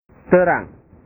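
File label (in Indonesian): "terang", Indonesian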